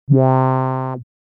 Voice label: Comic voice